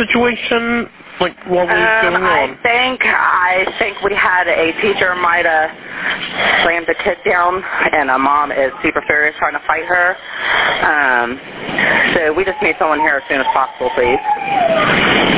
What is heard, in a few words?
911 Call; Giggles Wiggles; Ohio Daycare